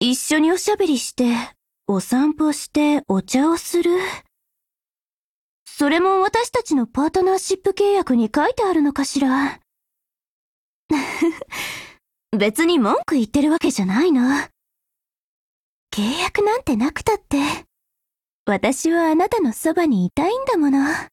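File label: DIALOGUE WEDDING Japanese; FM24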